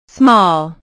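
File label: "small", English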